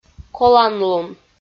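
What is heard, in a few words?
"коланлун" Komi, female; audio dict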